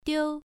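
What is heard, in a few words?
"diu" Mandarin, first tone, pronunciation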